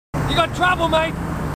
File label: marines, aussie; h2dialogue